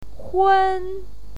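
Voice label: "hun", Mandarin Chinese pinyin, first tone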